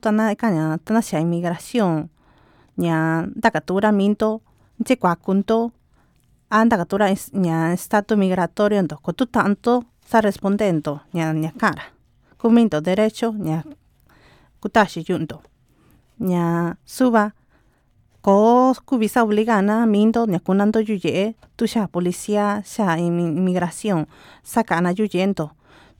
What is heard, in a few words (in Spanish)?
Conoce sus derechos (Mixteco Alto); census; census 2020